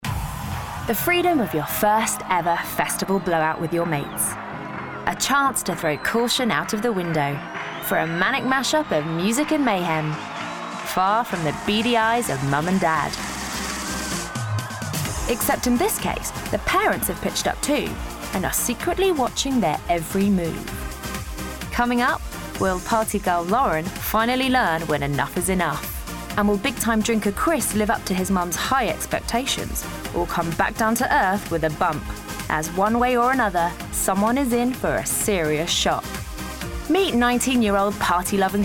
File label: Narration